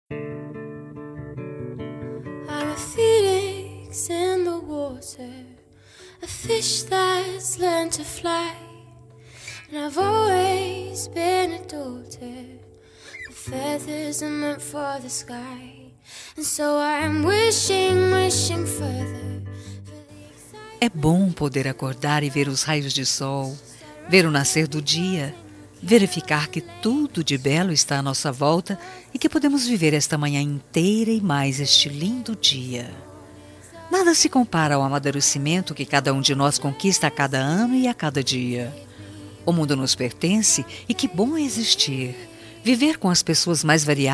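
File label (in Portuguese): FELIZ ANIVERSARIO female, telemensagem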